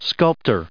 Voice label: "sculptor", us

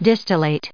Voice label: "distillate", en us

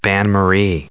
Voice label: "bain-marie", en us

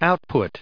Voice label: "output", us

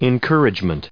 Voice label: "encouragement", us

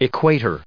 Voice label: "Equator", us

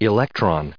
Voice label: "electrons", us

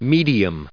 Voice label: "medium", us

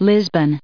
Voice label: "Lisbon", en us

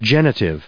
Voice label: "genitive", us

